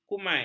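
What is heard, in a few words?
"kumai", Malay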